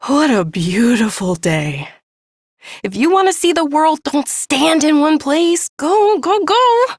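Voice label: Ambient Female Brisk, Dialog; Duviri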